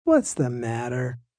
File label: Whats The Matter, Questions, male; Talking; Voice Box